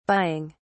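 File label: "buying", English, British English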